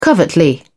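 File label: "covertly", British English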